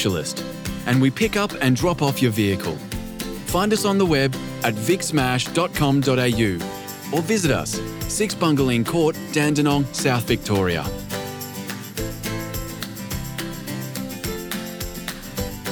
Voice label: Smash Repairs On Hold Messaging; Victorian Smash Repairs

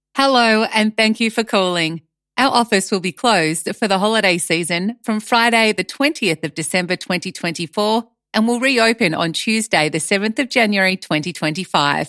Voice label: accounting holiday voicemail, phone greetings; csm advisors

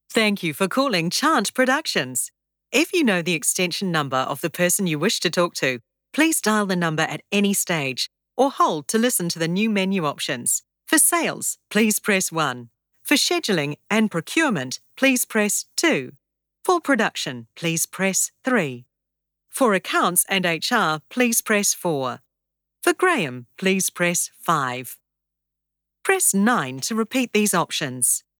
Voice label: Chant Welcome Greeting

